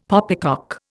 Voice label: "poppycock", Indian; Dictionary